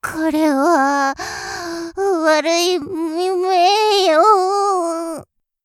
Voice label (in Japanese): 悪い夢よ, 寝言